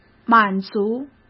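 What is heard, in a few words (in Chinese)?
"满足", Mandarin Chinese; hsk; chinese-flashcards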